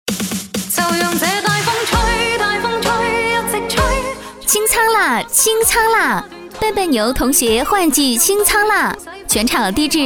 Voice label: 女 卖场促销 童鞋; 大风吹; 笨笨牛童鞋

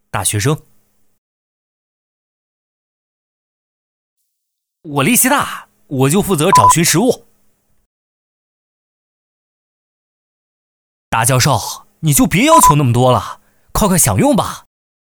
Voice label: 广播剧 短剧 男大学生

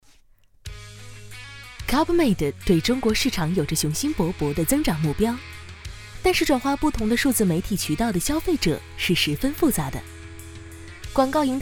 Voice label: 女 时尚讲解; Club Med

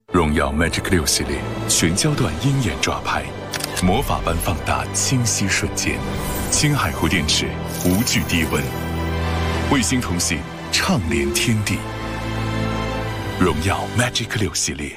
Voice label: 男; 央视; 荣耀magic6

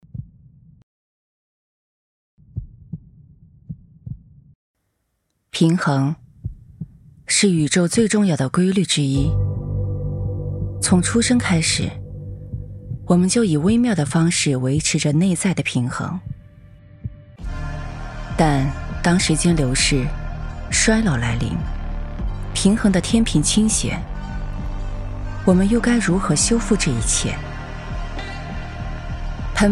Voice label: 女 科技感

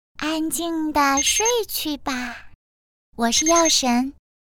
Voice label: 角色 女童 2个

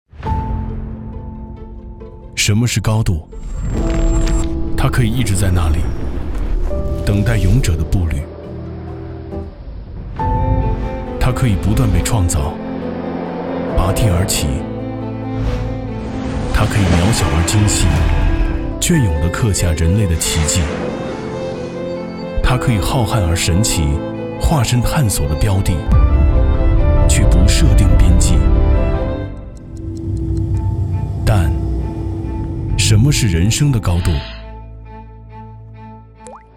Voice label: 男, TVC广告, 年轻质感; 珍十五

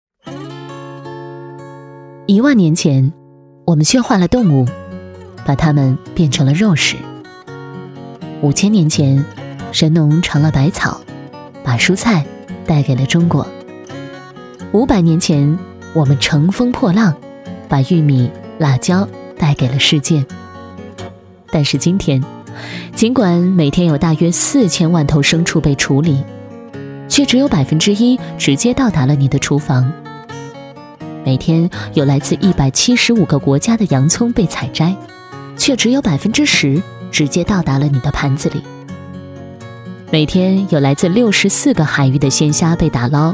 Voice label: 女, 讲述旁白

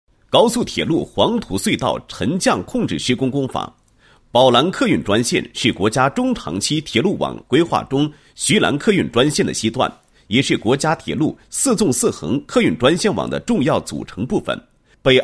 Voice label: 男 工程; 高速铁路黄土隧道沉降控制施工工法

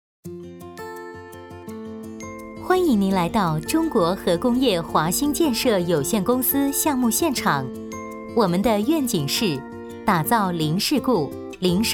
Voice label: 女 宣传 来访人员安全培训

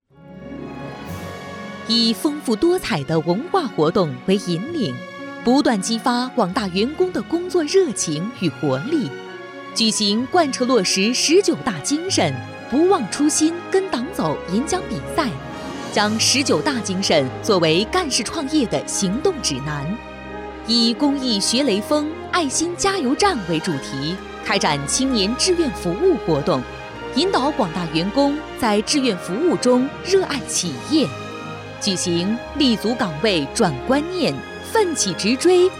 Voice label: 女 专题 浑厚大气

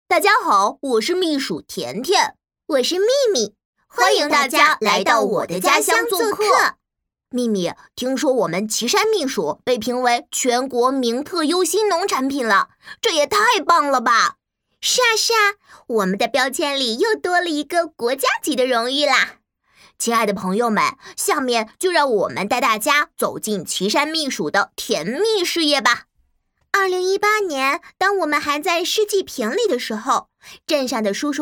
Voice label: 童声 男童女童合音 可爱卡通; 齐山蜜薯介绍

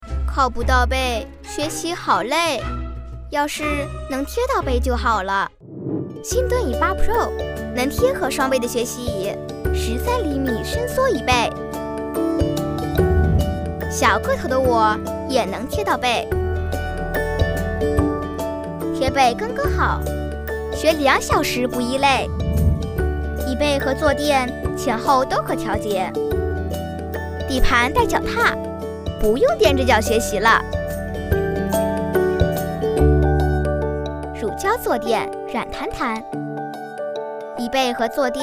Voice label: 男 真女童11岁 儿童学习椅; 爱果乐

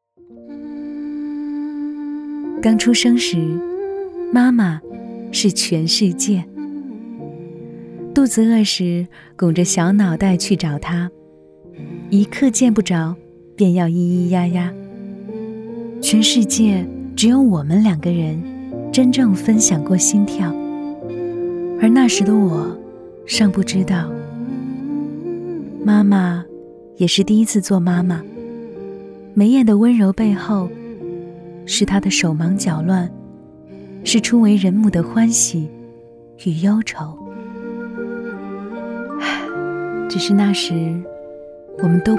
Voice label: 女 自然独白; 母亲节

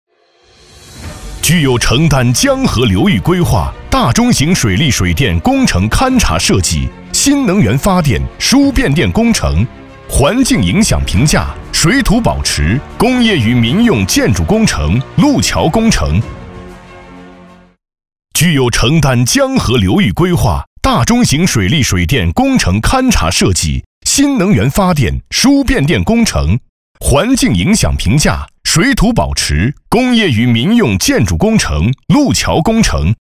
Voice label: 男 宣传片 激情 有力

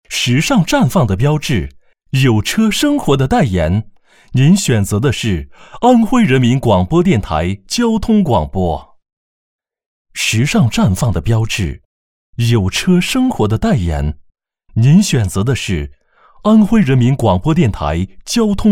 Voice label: 男, 电台包装; 安徽交通广播

